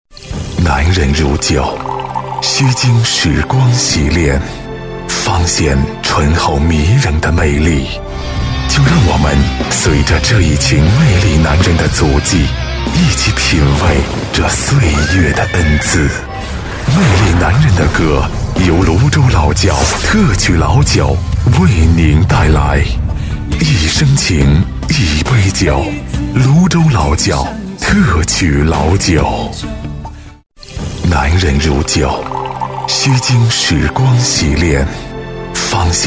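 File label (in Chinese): 国语 男声 广告 深沉浑厚 大气浑厚磁性 沉稳 科技感 积极向上 时尚活力; 泸州老窖-广告宣传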